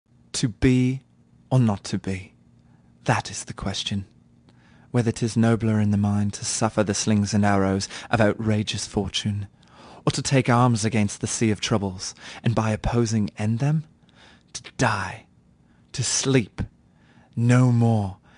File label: pitch English accent; Hamlet